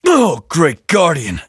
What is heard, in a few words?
hit, en